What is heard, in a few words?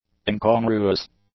"incongruous", English; adjectives